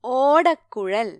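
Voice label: "flute", Malayalam; gcompris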